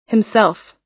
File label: "himself", us